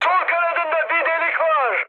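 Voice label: Turkish left wing, mood high, speech; War Thunder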